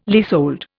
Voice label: "leasehold", Indian